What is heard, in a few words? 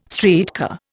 "streetcar", Indian